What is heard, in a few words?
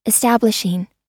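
"establishing", American English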